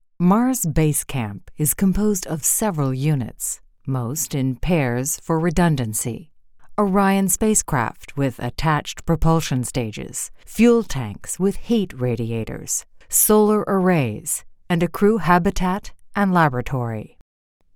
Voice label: Serious Informative